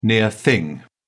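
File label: "near thing", British English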